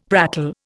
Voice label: "prattle", Indian; Dictionary